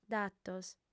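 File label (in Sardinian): "datos", female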